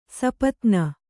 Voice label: "ಸಾಪತ್ನಿ", kannada

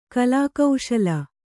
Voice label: "ಕಲಾಕುಶಲೆ", Kannada

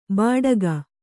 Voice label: "ಬಾಡಗ", Kannada

